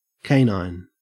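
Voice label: "canine" English, Australian accent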